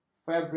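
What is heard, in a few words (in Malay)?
"fabrik", Malay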